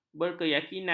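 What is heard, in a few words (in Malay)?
"berkeyakinan", Malay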